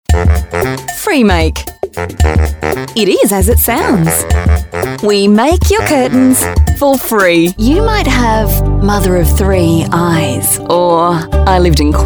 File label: female talent retail